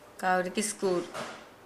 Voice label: "student", Nukuoro